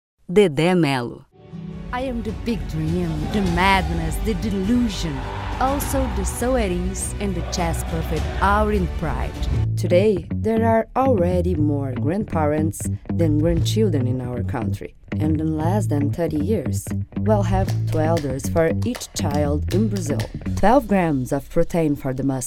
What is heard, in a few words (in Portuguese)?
Brasileiros Ingles